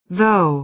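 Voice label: "though", American English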